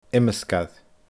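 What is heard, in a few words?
"iomsgair", Scottish Gaelic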